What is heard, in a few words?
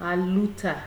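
"aluta", Nigerian Pidgin, female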